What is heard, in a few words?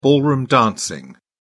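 "ballroom dancing", British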